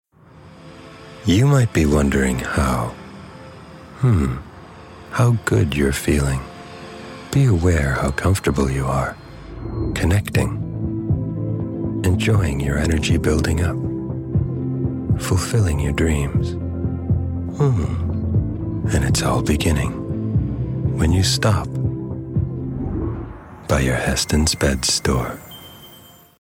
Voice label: us com softsell

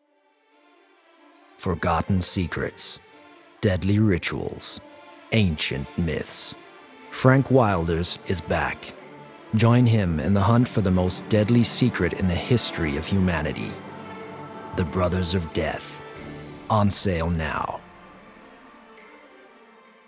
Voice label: uk movie trailer us english

